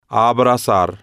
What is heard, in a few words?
"abrazar", Spanish